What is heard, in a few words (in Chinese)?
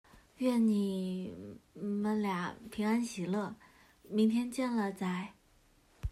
一句情话